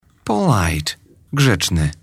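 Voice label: "polite", English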